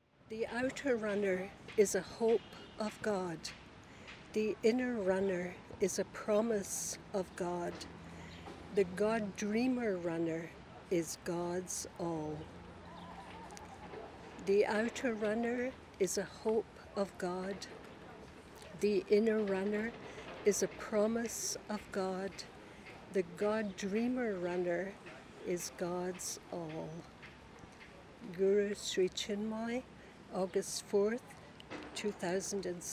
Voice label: Poem